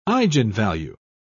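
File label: "eigenvalue", British English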